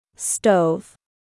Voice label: "Stove", English